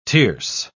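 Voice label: "tierce", British English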